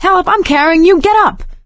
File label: revive friend loud, survivor voice; Left 4 Dead 2; producer